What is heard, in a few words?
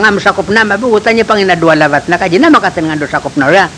Yami Language; niomahas a longtsang si Kaojinzhong